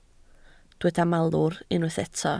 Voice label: "malwr", Welsh